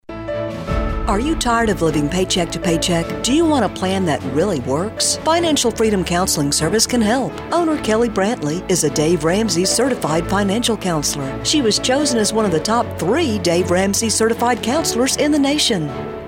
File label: radio spot